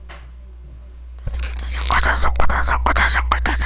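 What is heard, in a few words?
"bicycle", spoken word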